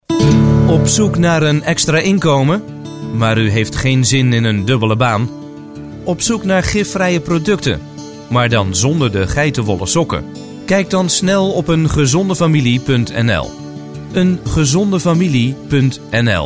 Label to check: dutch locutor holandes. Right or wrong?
Right